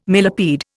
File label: "millipede", Indian